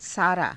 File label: "saaraa", Hindi; [形]全部の; सारा